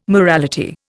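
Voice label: "morality", Indian English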